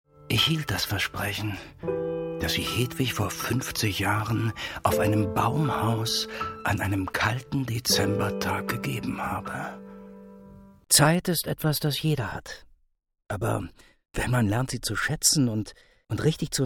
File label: german